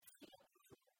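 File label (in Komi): Komi, сійӧ оз мун, female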